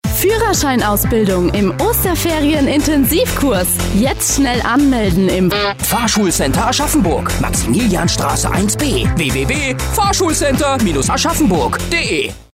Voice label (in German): Radio-Spot Osterferien-Kurs; Fahrschul-Center-AB